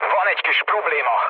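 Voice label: Hungarian aircraft damaged mood low, speech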